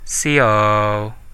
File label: sorry, Central Dusun